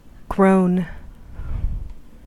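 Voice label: "groan", US English; pronunciations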